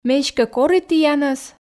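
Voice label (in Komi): ме эськӧ кори тіянӧс, Komi, female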